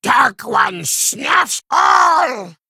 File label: Male Kobold